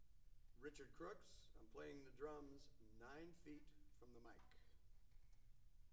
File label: Percussion Imaging Tests, Drum 9 Feet, Dry Studio: Announcement